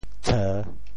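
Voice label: "tsheu3", Teochew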